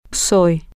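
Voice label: I am permanent, Spanish, female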